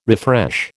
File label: "refresh", American